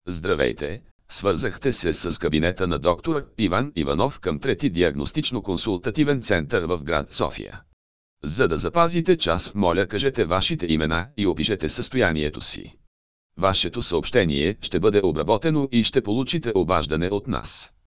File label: Wellcome Message